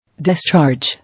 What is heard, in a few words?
"Discharge", English